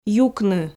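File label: "юкны", Komi, female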